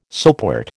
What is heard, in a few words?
"soapwort", American